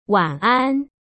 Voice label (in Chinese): "晩安", 中国語; taiwandetukauchinese